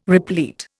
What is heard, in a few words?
"replete", Indian